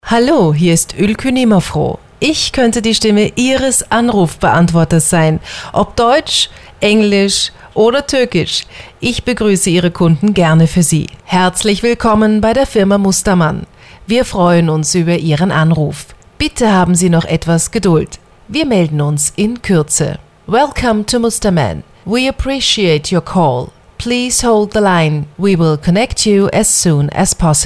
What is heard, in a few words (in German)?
Sprecher Telefonansagen